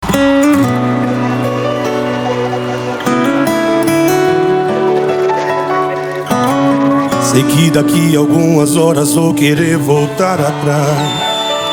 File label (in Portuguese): locutor a voz magnifica